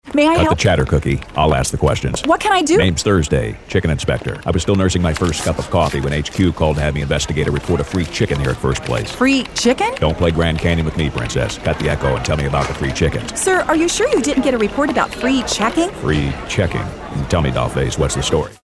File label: Dry